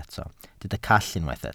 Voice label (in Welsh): "call", Welsh